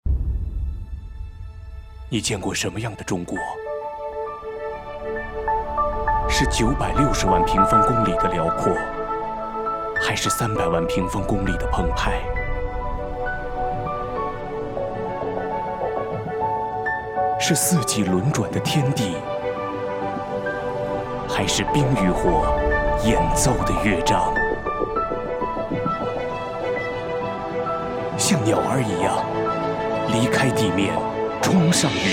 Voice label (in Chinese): 男声大气宣传